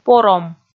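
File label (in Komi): "пором", Komi, female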